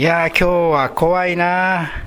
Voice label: "kowae", minamiyamagata; 疲れる。体調不良。疲れて苦しい。